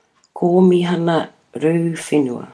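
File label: "komihana ruwhenua", Māori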